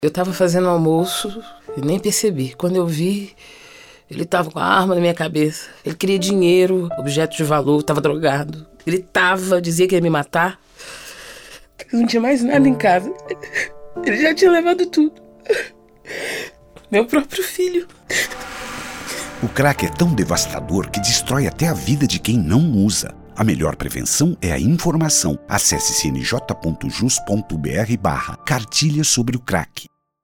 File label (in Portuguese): spot